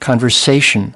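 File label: "conversation", English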